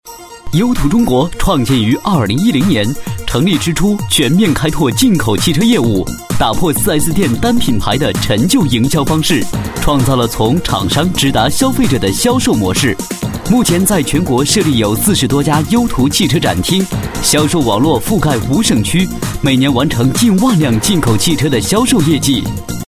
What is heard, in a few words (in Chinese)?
专题 企业 活力; 优途中国